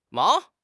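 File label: "mao2", Chinese; HSK4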